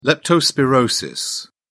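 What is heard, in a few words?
"leptospirosis", British English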